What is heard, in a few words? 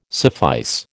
"suffice", American